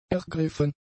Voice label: "ergriffen", German; adjectives